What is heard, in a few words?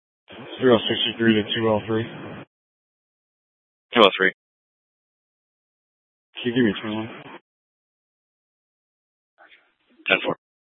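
Scottsdale Police Department South Dispatch; Regional Wireless Cooperative (RWC) - Simulcast H- Scottsdale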